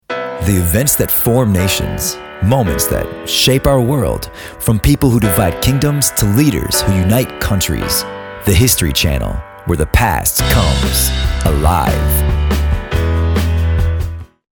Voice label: 外籍英男 历史感

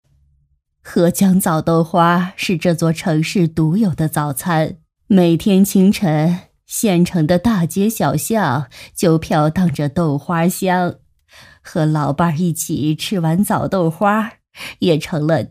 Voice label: 角色张大妈; 小城大事

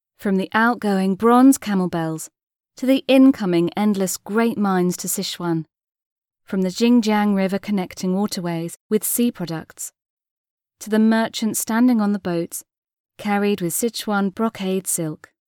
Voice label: 企业配音; 未来馆

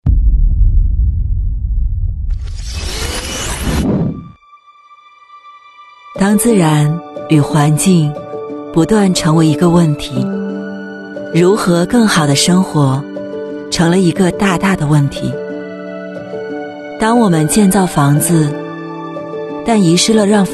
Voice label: 女 宣传片旁白; 保利

